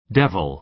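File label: "devil", American English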